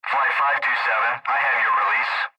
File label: Airtraffic Control Release